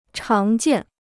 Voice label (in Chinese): "常见", Chinese